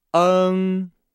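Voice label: "eng", Chinese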